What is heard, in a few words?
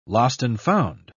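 "lost-and-found", British English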